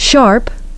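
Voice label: "sharp", English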